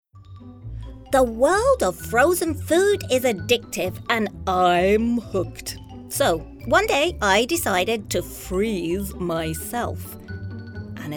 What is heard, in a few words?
female british english food comercial; ideasonora